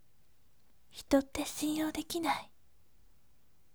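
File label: voice, gentle; hito shinyou dekinai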